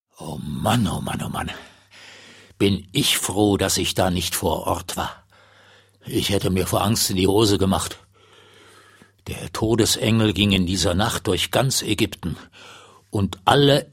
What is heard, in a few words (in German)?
Erzaehler